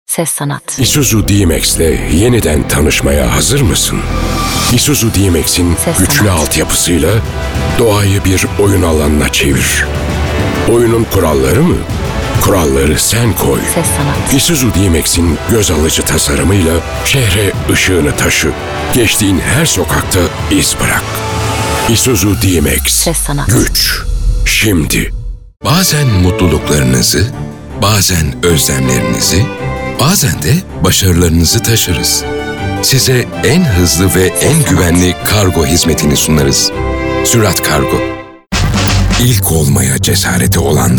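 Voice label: Turkish, male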